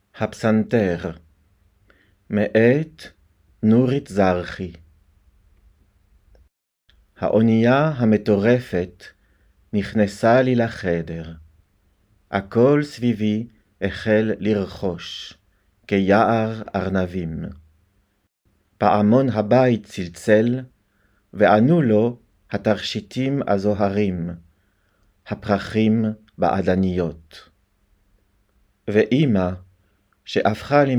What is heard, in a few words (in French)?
Poesie hebreu Le piano; Hapsanter; sur les rives des fleuves al neharo